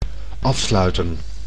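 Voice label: "afsluiten", Dutch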